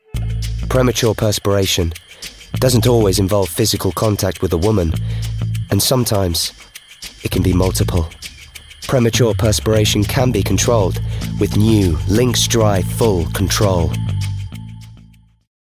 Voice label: advert; lynx